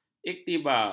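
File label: "iktibar", Malay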